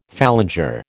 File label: "phalanger", American